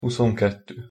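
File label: "huszonketto", Hungarian pronunciation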